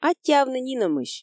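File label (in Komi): "аттьӧавны нинӧмысь", Komi, female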